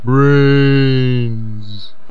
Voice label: brains, zombie speech